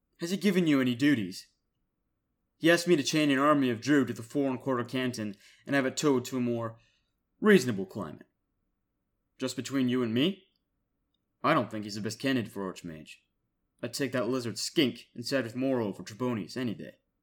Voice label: imperial american style